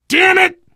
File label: swear, player survivor voice biker